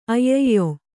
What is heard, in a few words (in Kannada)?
"ಅಯ್ಯಯ್ಯೊ", Kannada; ayyayyo; interjection; dupl. of ಅಯ್ಯೊ